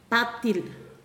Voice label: "paddle", Pingelapese